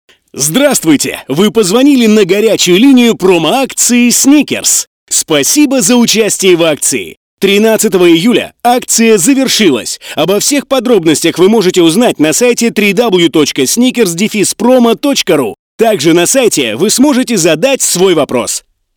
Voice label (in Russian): avtootvetchik; профессионального диктора для голосового меню